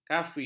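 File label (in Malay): "kafi", Malay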